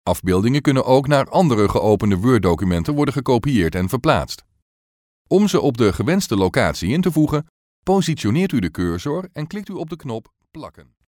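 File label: Dutch, male, explainer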